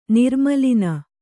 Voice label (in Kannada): "ನಿರ್ಮೂಲನ", Kannada